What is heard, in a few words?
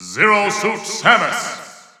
English Announcer; Zero Suit Samus; SSB4-SSBU